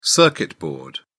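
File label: "circuit board", British English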